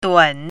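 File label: "dun3", Mandarin Chinese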